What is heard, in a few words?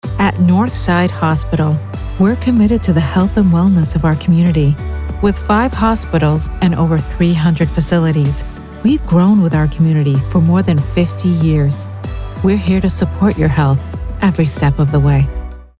spot Friendly; Nothside Hospital